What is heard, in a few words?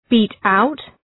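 "beat out", English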